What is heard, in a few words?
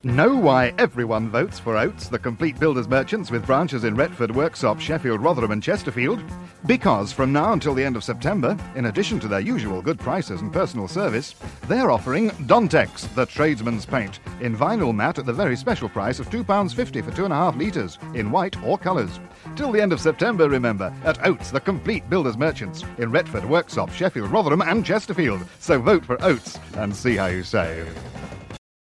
Radio Advert, Builders Merchants; Radio Hallam; Oats